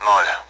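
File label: Speech Sound Russian Common AWACS Digits; DCS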